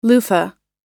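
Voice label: "mangosteen", us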